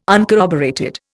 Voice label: "uncorroborated", Indian

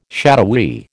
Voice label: "shadowy", American

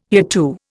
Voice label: "hereto", Indian

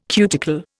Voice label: "cuticle", Indian

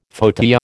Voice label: "fauteuil", American

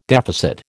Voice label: "deficit", American

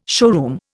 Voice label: "showroom", Indian

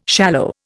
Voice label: "shallow", Indian

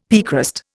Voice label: "piecrust", Indian; Dictionary

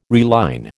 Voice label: "reline", American

